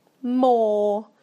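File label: "more", British English; PictureDictionary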